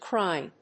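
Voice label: "crine", English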